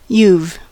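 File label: "you've", US English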